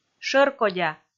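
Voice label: "шӧркодя", Komi, female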